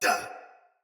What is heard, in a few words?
Pain Soldier Sword Agent Male; Battle; Sinecure Melee